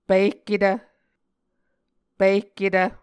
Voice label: "pihketv", Muscogee